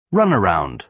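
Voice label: "runaround", British English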